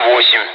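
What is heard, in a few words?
Speech, Russian, air traffic control; Digits; DCS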